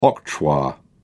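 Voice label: "octroi", English, British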